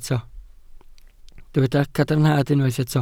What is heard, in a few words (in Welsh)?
"cadarnhad", Welsh